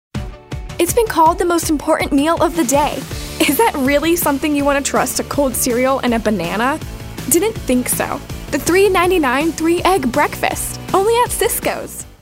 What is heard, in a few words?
perky